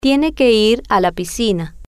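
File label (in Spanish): tiene que ir piscina, Spanish, female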